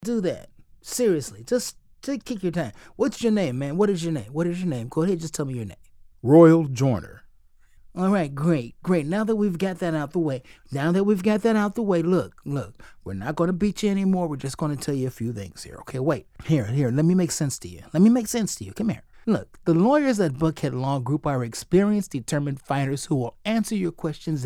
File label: CHARACTER COMMERCIAL LAW FIRM; PESCI